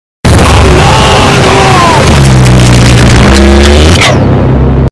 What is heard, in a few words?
za warudo earrape